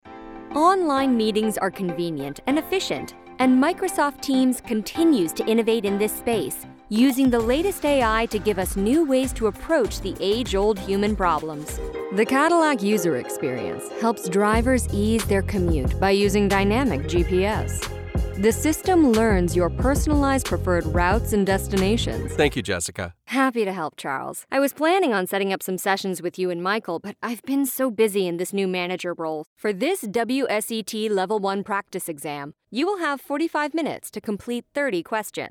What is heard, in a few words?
Corporate Elearning